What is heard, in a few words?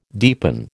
"deepen", American